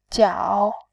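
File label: "jiao", Mandarin, third tone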